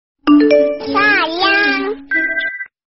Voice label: notif wa sayang imut; nada dering; notifikasi

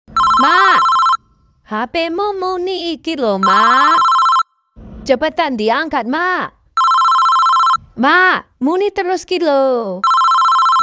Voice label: nada dering wa jawa maaakkk

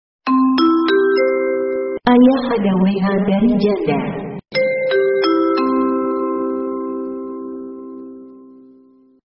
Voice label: nada notifikasi ayah ada WA dari janda versi suara google

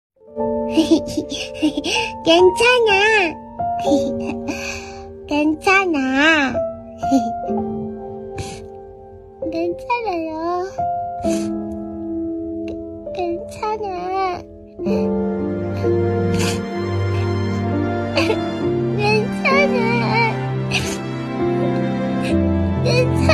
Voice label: nada dering korea "gwenchana"; "Gwenchana" atau "괜찮아" berarti "Aku baik

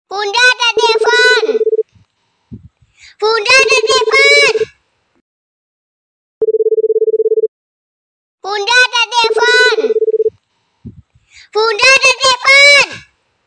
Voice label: nada dering; Bunda ada telepon; versi animasi, bayi, dan lucu

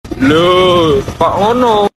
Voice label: nada notifikasi wa bahasa jawa

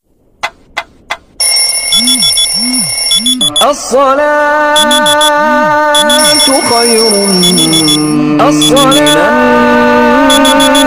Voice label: nada suara alarm berisik assolatukhoirumminannaum

